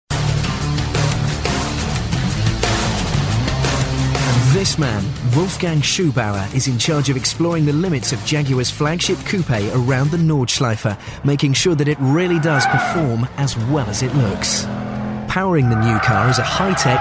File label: Narration; Top gear dvd; Vocal Point